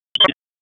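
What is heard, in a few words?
Police Southwest District Tac, radio; Raleigh; Tac 1; wake; p25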